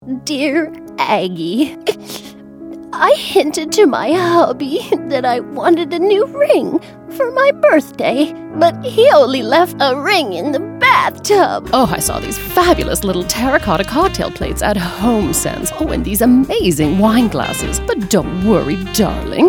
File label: Character